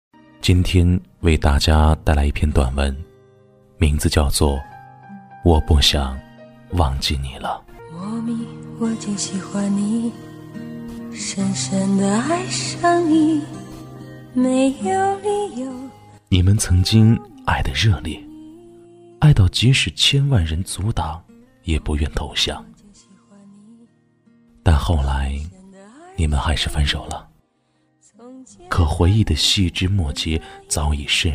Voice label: 男 电台节目 细腻温暖; 《我不想忘记你》

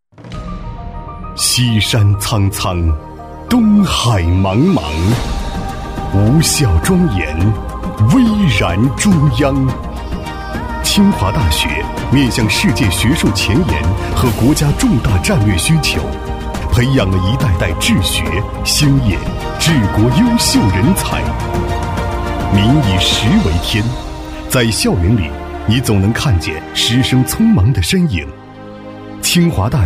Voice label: 男 学校宣传片 高校汇报; 高端品质; 成熟厚重; 寻味清华

